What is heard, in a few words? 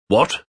"What" Male, Exclamation; VoiceBox